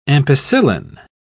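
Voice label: "ampicillin", British English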